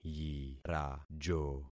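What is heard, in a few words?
"yirajo", concatenated syllables; Affixing experiment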